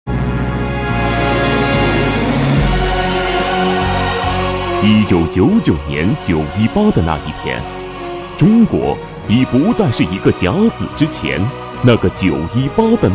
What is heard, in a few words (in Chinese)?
男 专题 演讲稿 大气稳重